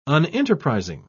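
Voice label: "unenterprising", British English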